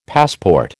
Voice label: "passport", American

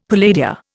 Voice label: "palladia", Indian; Dictionary

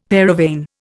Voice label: "paravane", Indian; Dictionary

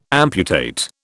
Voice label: "amputate", British

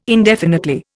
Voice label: "indefinitely", Indian

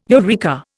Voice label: "eureka", Indian

